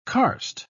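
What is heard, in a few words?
"karst", British English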